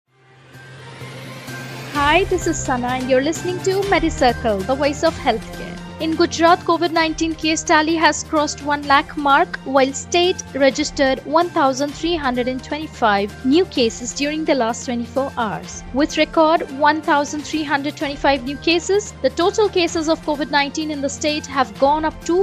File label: english news